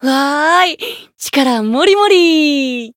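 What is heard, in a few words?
voice growth, Japanese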